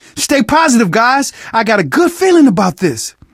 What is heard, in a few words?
zombie generic short, survivor voice